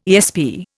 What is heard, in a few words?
"ESP", Indian